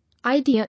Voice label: "Idea", English